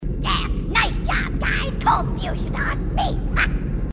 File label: nice job guys, grunts